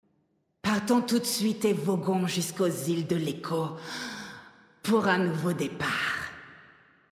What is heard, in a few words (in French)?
Female Flirt; Troll Zandalari; Echo